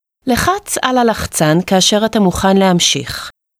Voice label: Press To Continue, hebrew